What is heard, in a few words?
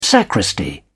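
"sacristy", English, British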